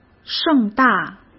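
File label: "盛大", Mandarin Chinese; hsk; chinese-flashcards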